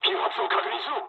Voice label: damaged oil mood low, speech jp; War Thunder